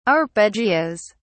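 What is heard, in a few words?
"arpeggios", British English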